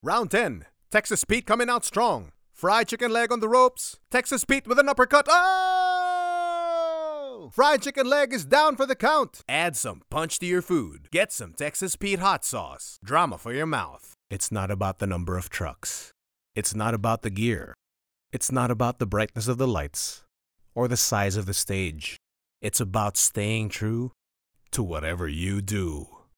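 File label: English Accented Male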